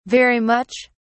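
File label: "very much", British English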